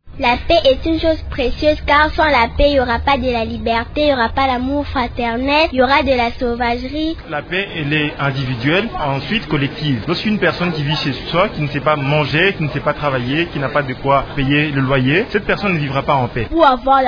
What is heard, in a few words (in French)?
vox pop